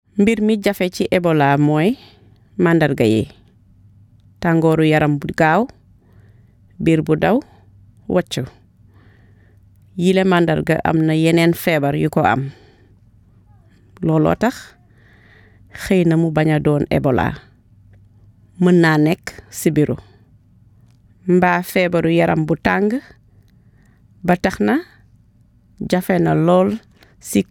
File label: wolof; vhf; ebola